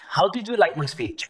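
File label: VoiceActing es, Male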